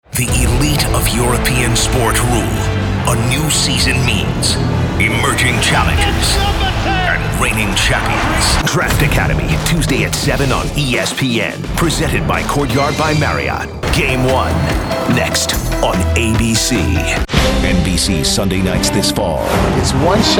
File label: promos men sports